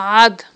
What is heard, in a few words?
"гIад", Aghul